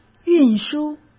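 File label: "运输", Mandarin Chinese; hsk; chinese flashcards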